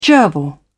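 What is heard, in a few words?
"gerbil", British English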